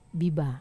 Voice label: "baba", Wamesa

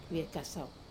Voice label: "kasau", farish, Wamesa

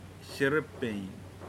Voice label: "Girl", Pingelapese

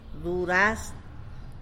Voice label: "duraz", tlacolula; peach

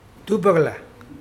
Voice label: "starved", Mokilese

